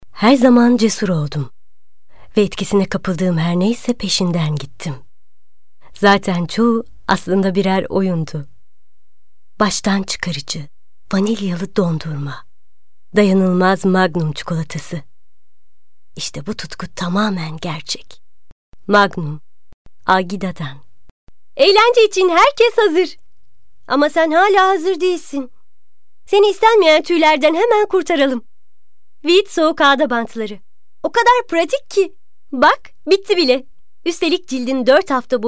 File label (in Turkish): KADIN